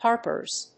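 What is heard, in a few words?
"harpers", English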